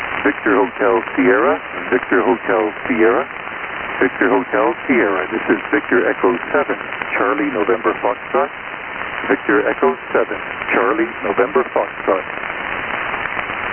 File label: VHS SSB